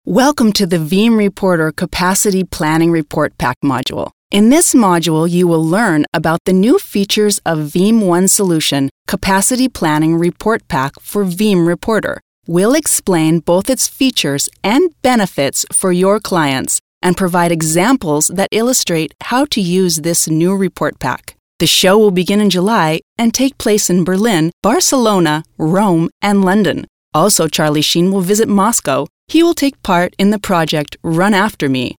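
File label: American English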